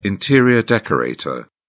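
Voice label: "interior decorator", English, British English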